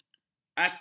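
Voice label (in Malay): "acu", Malay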